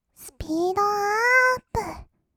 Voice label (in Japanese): すぴーどあーーーっぷ, kawaii voice; ASMR; 1200 kawaii voice!!; 音ゲー